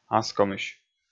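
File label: "аскомысь", Komi, male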